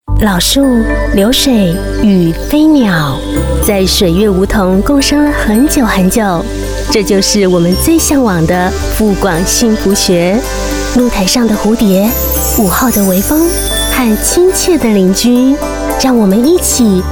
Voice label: Mandarin Taiwan, Female; FuGuangDevelopment